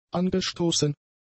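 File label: "angestoßen", German; adjectives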